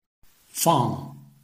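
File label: "fang", Chinese, tone 4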